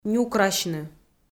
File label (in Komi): "нюкрасьны", Komi